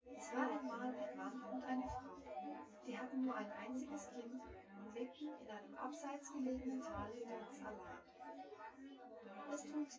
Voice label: female